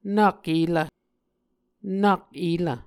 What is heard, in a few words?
"nak elv", Muscogee